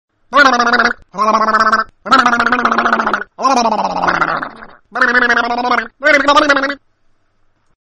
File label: sweeping crazy voice